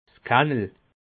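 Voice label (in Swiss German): "kannel", Bas Rhin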